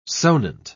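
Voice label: "sonant", British English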